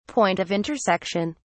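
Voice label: "point of intersection", British English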